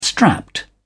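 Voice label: "strapped", British English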